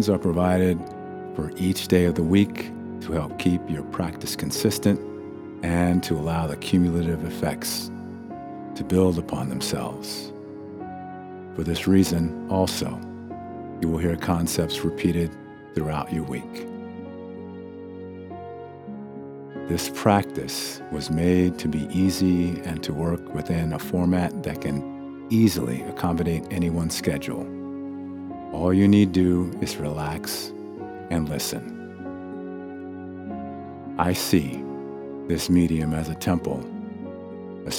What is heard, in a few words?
Meditation Sample